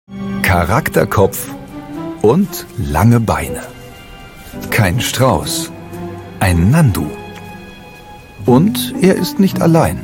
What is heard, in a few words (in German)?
doku; arte frecheviecher